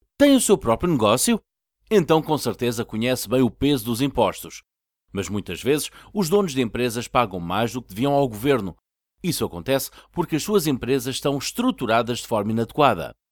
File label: PORTUGUESE